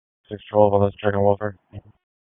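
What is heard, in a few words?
Police Southwest District Disp, radio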